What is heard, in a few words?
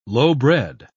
"lowbred", pronounce